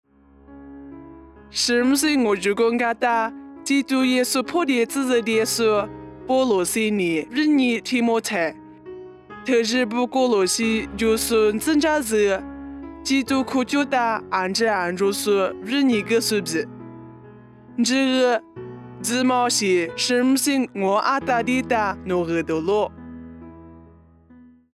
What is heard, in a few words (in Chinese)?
凉山彝语; 地中海歌罗西; nuosu